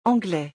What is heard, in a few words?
English Anglais pronunciation; England in French